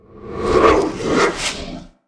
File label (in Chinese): 挑衅; Enemy; 鬼,幽灵